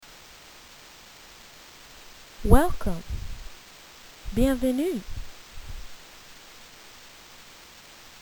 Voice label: Welcome French